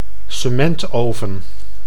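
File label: "cementoven", Dutch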